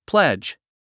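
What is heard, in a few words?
"pledge", English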